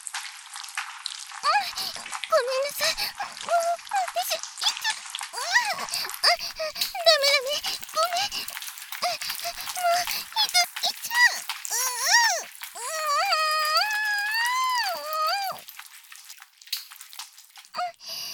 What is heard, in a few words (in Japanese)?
彼女とセックス後のお休みのやりとり（バイノーラルマイク収録 効果音あり大きめ）; 効果音大差分